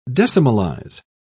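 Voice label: "decimalize", British English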